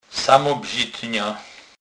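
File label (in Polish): "samobzitna", Kurpie dialect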